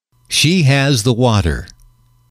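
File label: "she has the water", English, male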